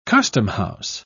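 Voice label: "customhouse", British English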